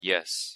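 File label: pronunciation "yes", English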